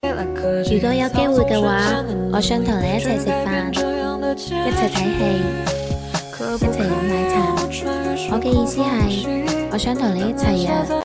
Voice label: 粤语我想和你一起

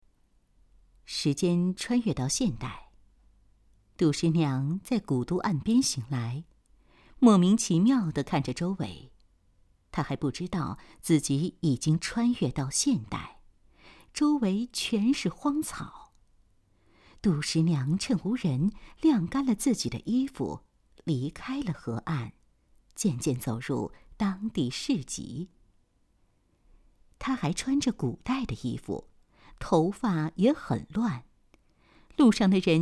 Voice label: 有声书穿越小说

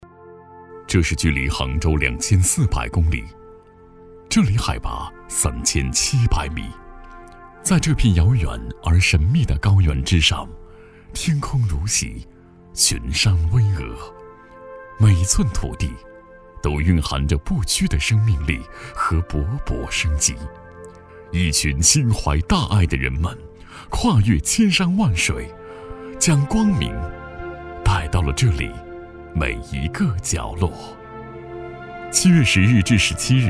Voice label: 旁白感情浓郁浑厚

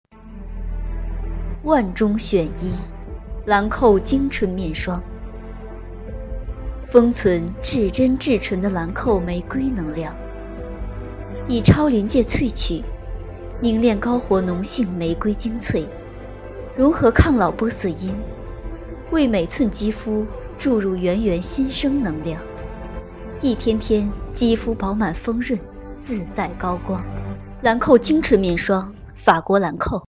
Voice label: 广告

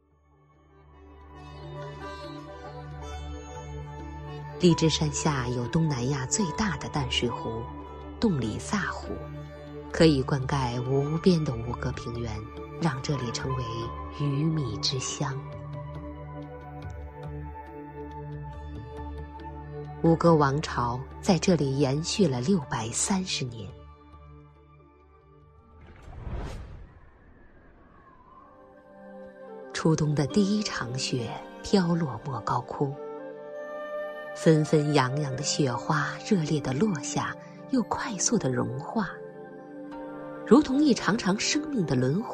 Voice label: 纪录片; 吴哥窟与莫高窟

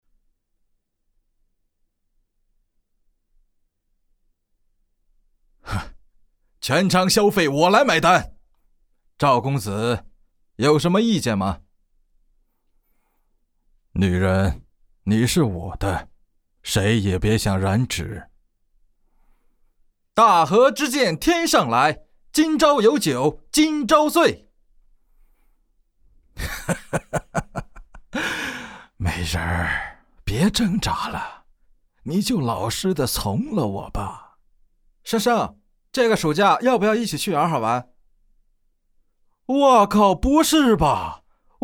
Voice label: 角色音 展示

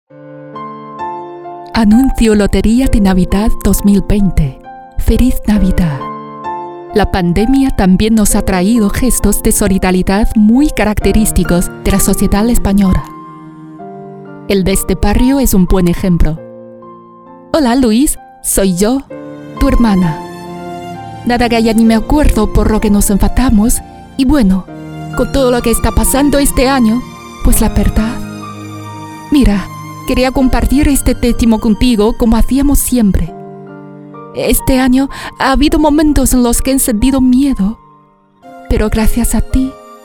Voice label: 西语温情广告

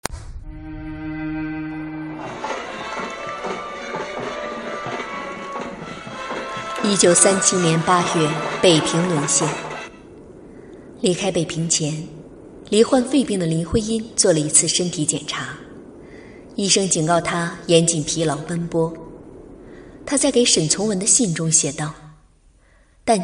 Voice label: 记录片; 开始流亡

